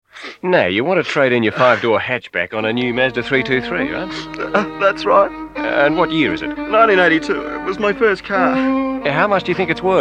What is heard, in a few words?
Dealers Voice Over; Mazda